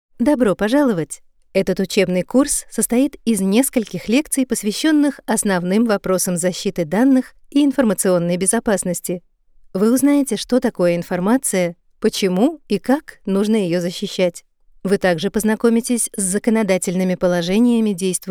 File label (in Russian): elearning ru